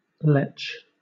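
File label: "letch", British English; pronunciations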